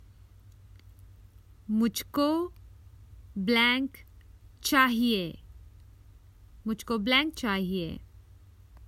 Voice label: Common Hindi Phrases for travel I would like

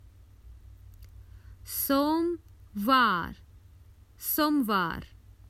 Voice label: Common Hindi Phrases for travel Monday

